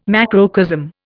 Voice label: "macrocosm", Indian